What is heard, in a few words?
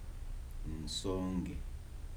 circular house, Kibembe